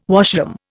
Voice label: "washroom", Indian